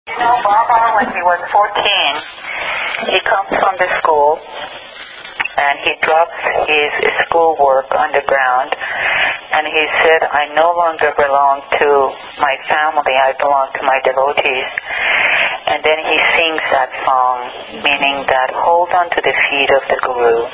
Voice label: phone call; new year message